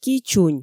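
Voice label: "ки чунь", Komi, female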